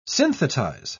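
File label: "synthetize", British English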